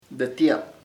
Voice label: brother, chamacoco; hermano